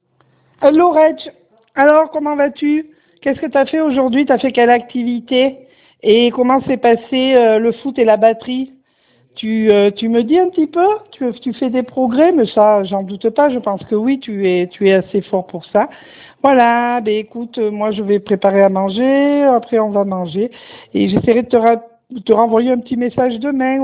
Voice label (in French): Message vocal